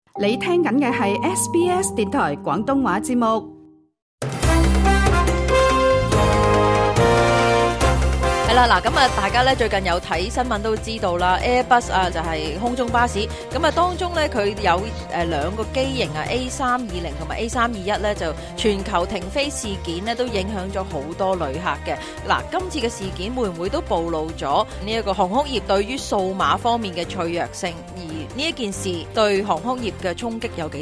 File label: cantonese; 【旅遊熱帶動經濟？】從 CPI、GDP 數據看澳洲人消費結構：旅遊需求強勁; SBS Chinese; global finance